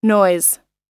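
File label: "noise", English; oxford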